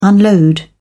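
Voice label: "unload", English, British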